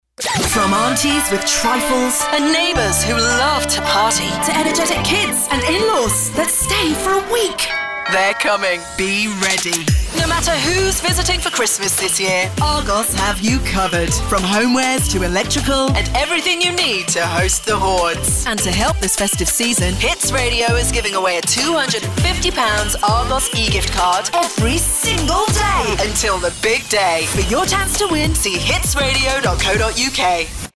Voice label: Commercial; ARGOS